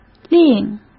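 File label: "令", Mandarin Chinese; chinese-flashcards; hsk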